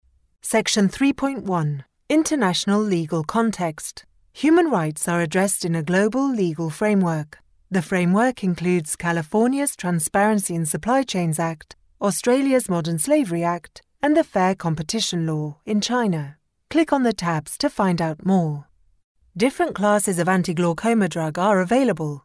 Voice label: E-learning